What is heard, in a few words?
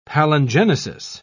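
"palingenesis", English, British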